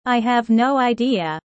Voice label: low; four seasons